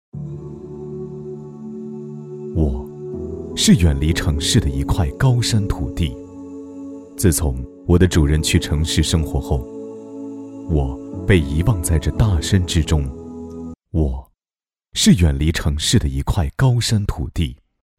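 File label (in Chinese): 男【旁白抒情】; 天宫庄园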